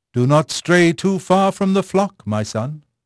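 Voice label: English male; Pirates Of The Caribbean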